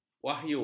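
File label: "wahyu", Malay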